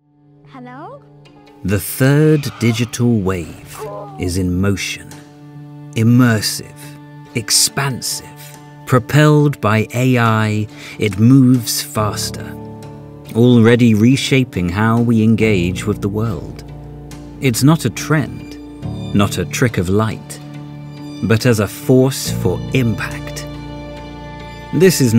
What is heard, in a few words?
UK; CIIIC